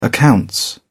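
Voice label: "accounts", British English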